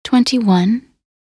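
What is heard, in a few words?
"21", English; minute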